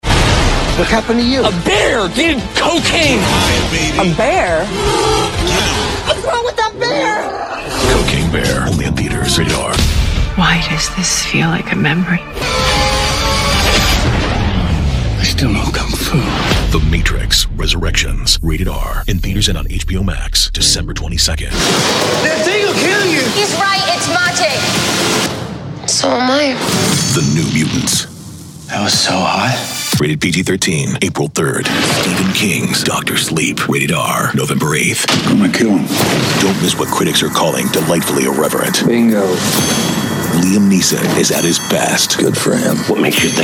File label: trailers men drama